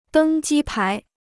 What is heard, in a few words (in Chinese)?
"登机牌", Chinese